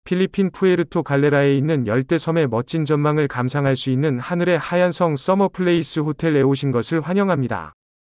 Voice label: Korean Welcome